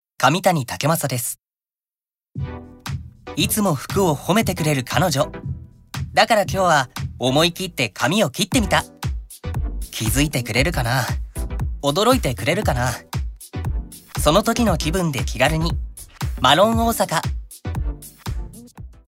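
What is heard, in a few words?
commercial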